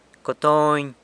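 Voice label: "cotony", San Lucas Quiaviní Zapotec; shirt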